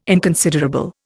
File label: "inconsiderable", Indian